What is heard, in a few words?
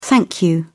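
"thank you", English, British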